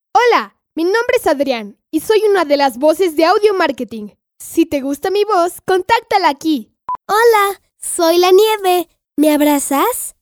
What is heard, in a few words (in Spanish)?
locutor nino; infantiles